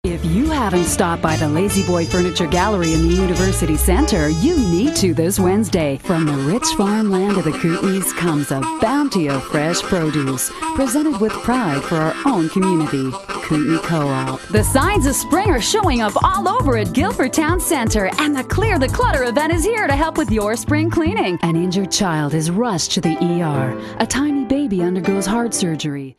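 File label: US commercial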